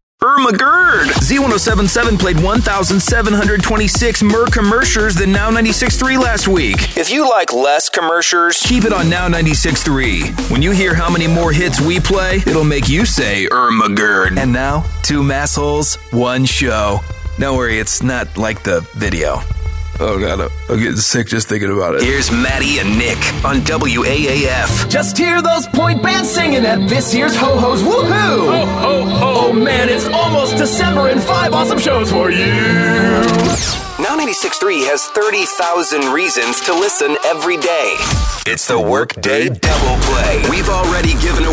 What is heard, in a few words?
Overall Radio Imaging